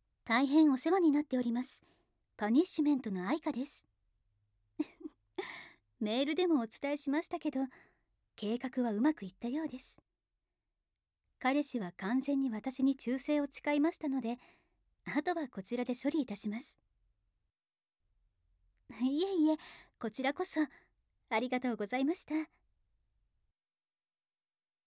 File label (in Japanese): 会話, 電話; カップル破局代行サービス 株式会社パニッシュメント; アイカと彼女の電話